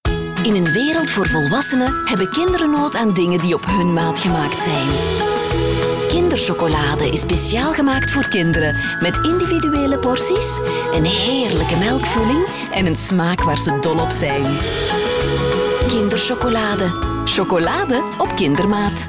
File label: TVC warm playfull; Kinder Chocolade